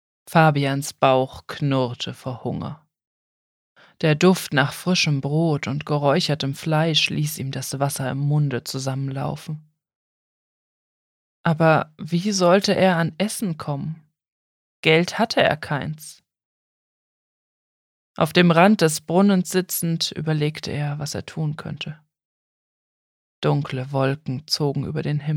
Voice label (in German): Fantasy Jugendbuch, hoerbuchsprecherinnen